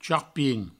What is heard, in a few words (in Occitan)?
"charpin", provençal; vocabulaire fondamental du provencal